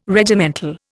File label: "Regimental", Indian